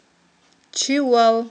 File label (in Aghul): "чивал", aghul